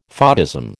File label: "photism", American